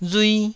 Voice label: "rui1", taiwanese

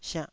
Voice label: "siannh2", taiwanese Syllables

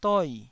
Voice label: "doi4", taiwanese syllable

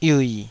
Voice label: "yiui3", taiwanese Syllables

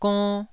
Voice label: "gonn1", taiwanese

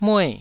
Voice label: "mue4", taiwanese syllables

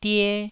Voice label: "die1", taiwanese syllable

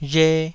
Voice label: "rhe2", taiwanese

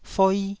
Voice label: "foi1", taiwanese syllables

